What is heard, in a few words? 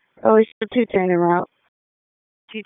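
Raleigh Police Northeast District Dispatch, p25; wake p25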